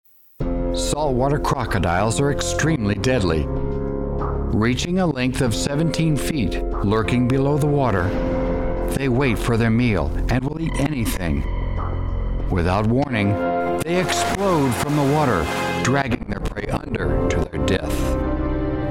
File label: Narration Male